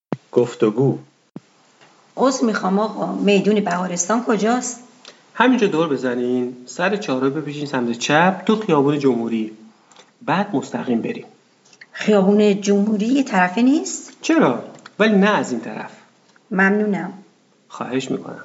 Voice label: Dialog, persian